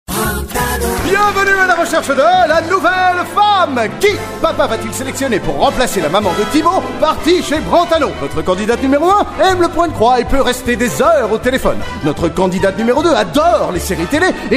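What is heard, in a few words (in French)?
Belgian French, male, commercial; voice demos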